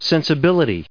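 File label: "sensibility", US English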